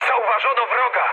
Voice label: enemy detected mood high, speech pol; War Thunder